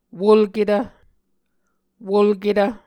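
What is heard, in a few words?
"wolketv", Muscogee word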